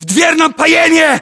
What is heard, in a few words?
landmark near in doorway, english